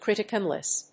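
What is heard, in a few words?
"criticalness", English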